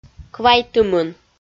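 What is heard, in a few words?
"квайтымын", Komi, female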